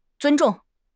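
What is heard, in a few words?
"zun1 zhong4", Chinese; HSK4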